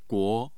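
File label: "guo", Mandarin Chinese, tone 2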